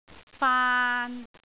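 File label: "faan3", Cantonese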